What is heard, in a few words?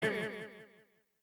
impressive, announcer; tf2cciv; open fortress